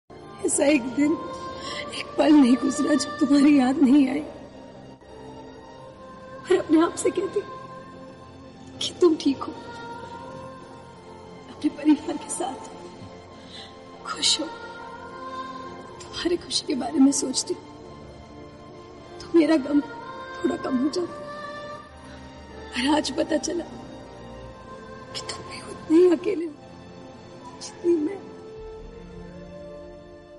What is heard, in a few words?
emotional dialogue, ringtone; kabhi alvida na kehna